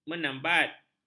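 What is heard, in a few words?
"menambat", Malay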